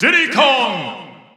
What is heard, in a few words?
English Announcer; Diddy Kong; SSB4-SSBU